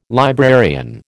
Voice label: "librarian", American

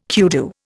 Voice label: "kudu", Indian

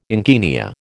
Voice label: "encaenia", American

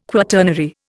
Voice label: "quaternary", Indian

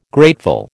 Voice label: "grateful", American

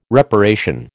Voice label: "reparation", American

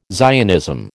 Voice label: "Zionism", American

